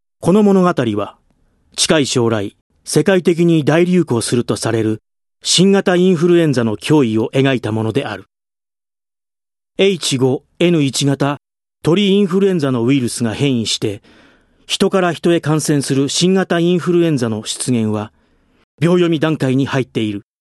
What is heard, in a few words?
male voice